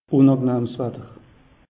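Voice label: "unangenahm", Alsatian; meteo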